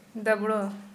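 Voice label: Santali female thick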